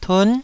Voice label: "tun9", taiwanese Syllables